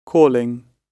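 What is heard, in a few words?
"calling", British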